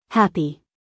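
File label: "happy", American English, female; everyone-can-use-english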